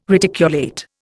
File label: "reticulate", Indian